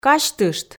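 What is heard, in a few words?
"казьтышт", Komi, female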